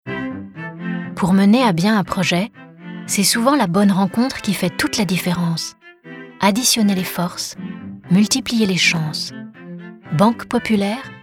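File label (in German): fr werbung, French; sprecher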